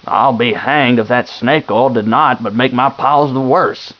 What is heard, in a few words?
man question